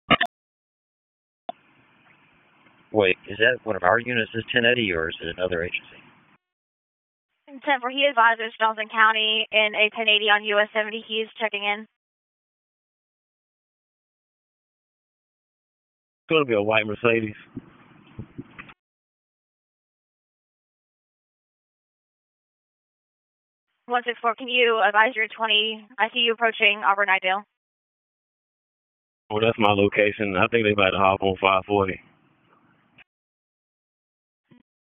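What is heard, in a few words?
Sheriff's Office Dispatch; p25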